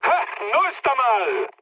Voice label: trick, mood high, speech; War Thunder